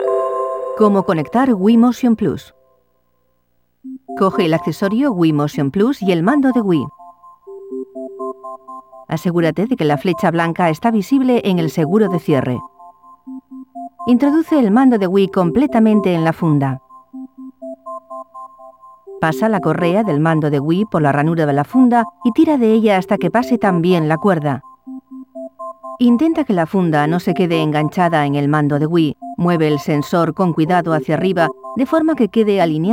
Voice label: Connecting Motion Plus (Spanish); the legend of zelda skyward sword wii gamerip 2011

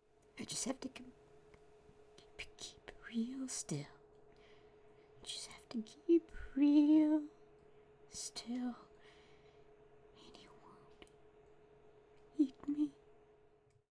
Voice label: Voices Female Idle

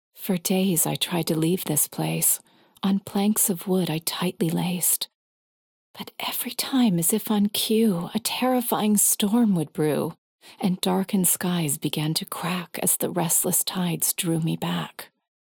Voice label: Poetry; Storm

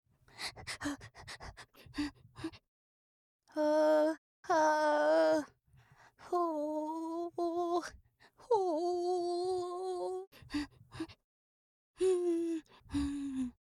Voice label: scared loop; Hollow Knight; Bretta

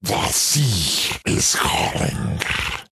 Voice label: Deep Devils Taunt

